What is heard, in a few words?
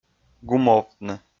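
"гумовтны", Komi, male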